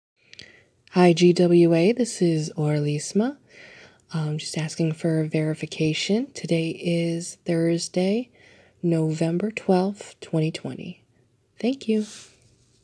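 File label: Female Verification Request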